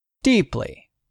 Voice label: "DEEPLY", english; 3000 words